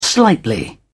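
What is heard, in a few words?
"slightly", British English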